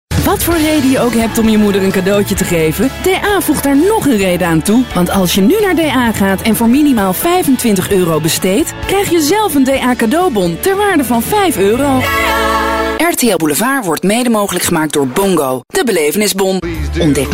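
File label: nl enthusiastic, Dutch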